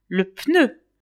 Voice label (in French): "pneu", French; velib